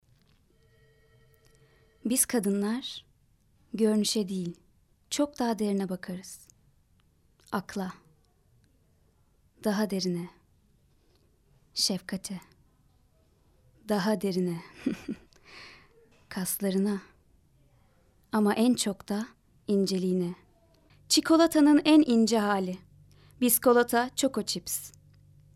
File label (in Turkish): reklam